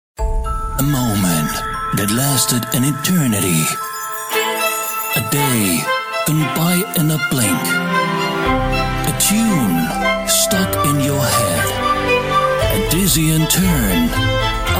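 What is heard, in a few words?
English UK, Kraftvoll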